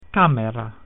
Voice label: "room", Latin